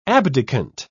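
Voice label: "abdicant", British English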